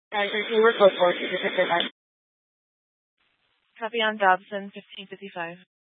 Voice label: Mesa PD Dispatch

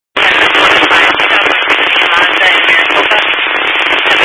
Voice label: Highway Patrol, scanner; DPS

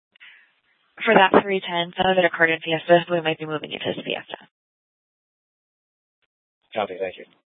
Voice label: Mesa PD Central Dispatch, Scanner

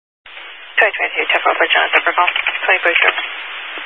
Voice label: Highway Patrol, Phoenix Metro East Area, scanner

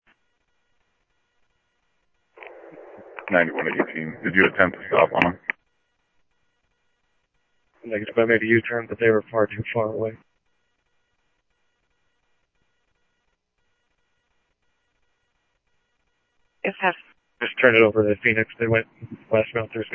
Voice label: PD Police, scanner audio

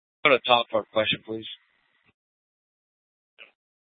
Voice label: Scottsdale PD Sth Dispatch, scanner

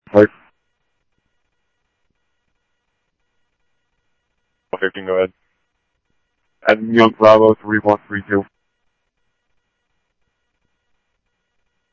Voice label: Police, scanner audio

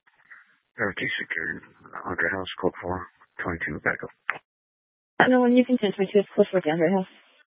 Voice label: Police Dispatch

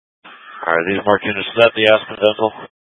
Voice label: Mutual Aid-Intersystem Interop B, scanner

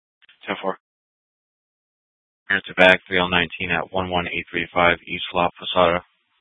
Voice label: Dispatch; Scottsdale

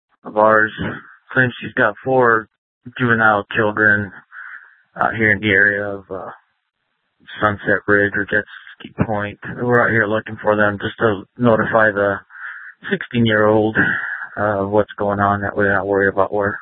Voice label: Sheriff, scanner P25; Maricopa County (P25) - Thompson Peak; Shrf Lakes

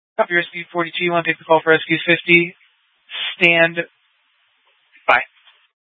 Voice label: Fire Dispatch; K8 - FDCOM 8 - South; C- Chandler

